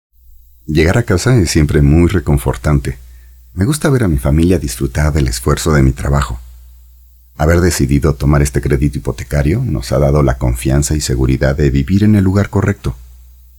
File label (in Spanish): locucion tono natural sin musica